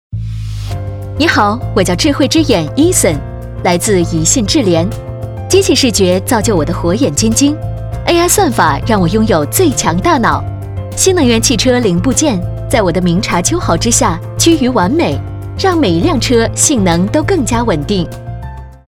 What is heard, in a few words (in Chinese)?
科技俏皮活泼